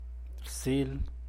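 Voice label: "rtzuun", San Mateo Macuilxochitl Zapotec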